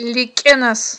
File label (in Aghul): "ликІенас", aghul